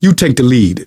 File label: lead on, survivor voice; source engine; left 4 dead 2; manager